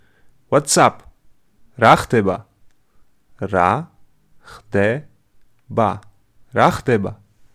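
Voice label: Whats up, Georgian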